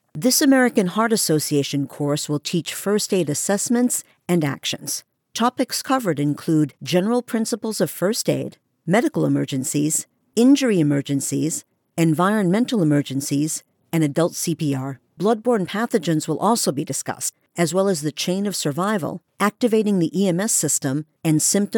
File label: Elearning; AHA